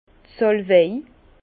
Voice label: "salwei", Bas Rhin; vin; sauge

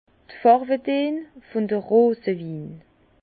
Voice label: "farwedeen", Bas Rhin; vin; teintes des vins roses

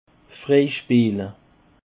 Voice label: "freispeele", Alsace

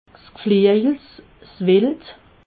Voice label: "gflejels", alsace

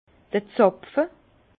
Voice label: "zapfe", Bas Rhin; vin; bouchon

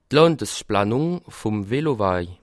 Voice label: "landesplanung", alsace

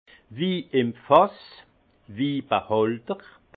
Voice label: "wiim fass", alsace; vin; vin en vrac

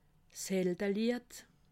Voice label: "heldenlied", alsace

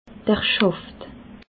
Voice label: "schaft", alsace

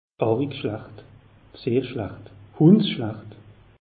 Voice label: "arig schlacht", alsace; meteo

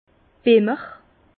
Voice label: "bemmer", Bas Rhin; vin

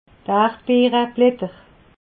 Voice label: "erbeereblatter", alsace

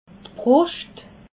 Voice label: "bruscht", alsace

